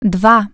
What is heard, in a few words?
"0", Russian Human Voice Tone; LG GX200; Keypad Tones